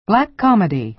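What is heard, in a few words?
"black comedy", American English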